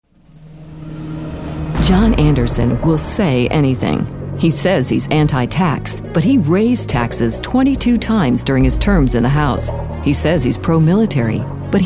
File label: political negative